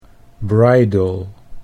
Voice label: "bridle", Dutch English

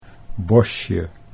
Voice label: "bosje", Dutch

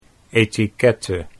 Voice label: "etiquette", Dutch

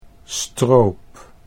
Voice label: "stroop", Dutch

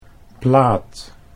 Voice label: "plaat", Dutch

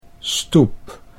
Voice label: "stoep", Dutch

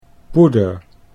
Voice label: "poeder", Dutch

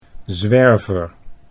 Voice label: "zwerver", Dutch

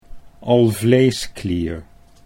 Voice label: "alvleesklier", Dutch

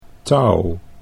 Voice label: "touw", Dutch

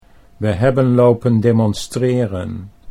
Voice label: "we hebben lopen demonstreren", Dutch

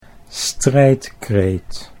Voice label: "strijdkreet", Dutch

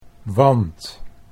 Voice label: "wand", Dutch

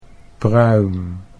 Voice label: "pruim", Dutch

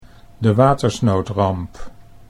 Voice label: "de watersnoodramp", Dutch

